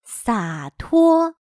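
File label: "洒脱", Chinese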